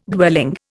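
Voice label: "dwelling", Indian English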